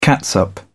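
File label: "catsup", British English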